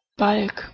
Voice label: "balg", Proto-Germanic